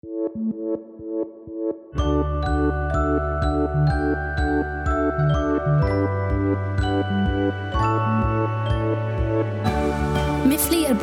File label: female swedish tv promo; tco